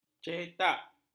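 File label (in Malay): "cetak", Malay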